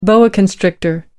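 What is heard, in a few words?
"boa constrictor", British English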